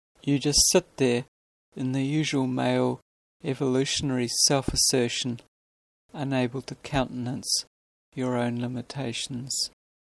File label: male evolutionary